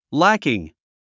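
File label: "lacking", English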